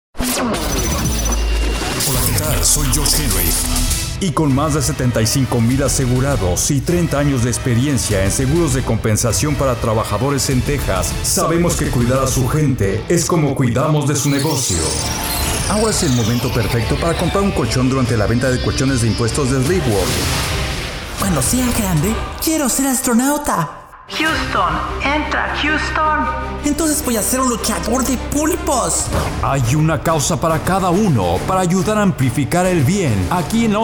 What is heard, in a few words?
MALE